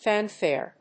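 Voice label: "fanfare", English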